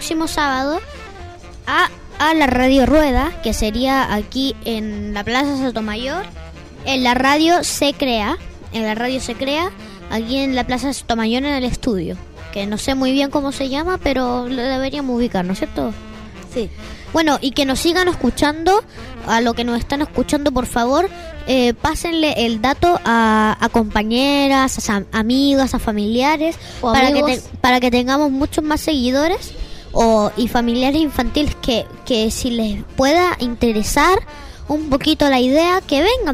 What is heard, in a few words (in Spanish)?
locución invitación niños